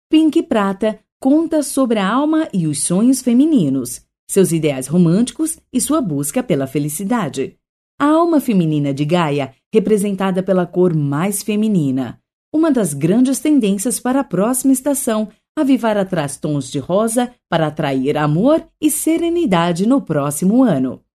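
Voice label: Portuguese BRA F Narration, female, Brazilian Portuguese; voice over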